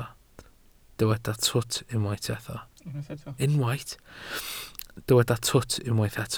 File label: "twt", Welsh